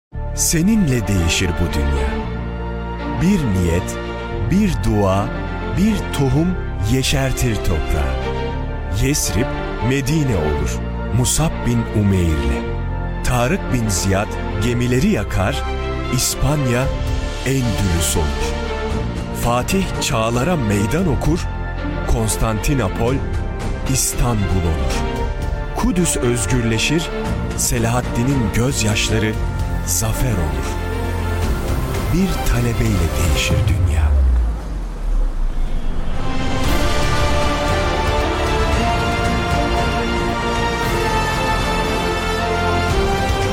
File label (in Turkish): tanitim